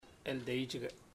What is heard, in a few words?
today, chamacoco; Puerto Diana